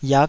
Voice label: "yak5", taiwanese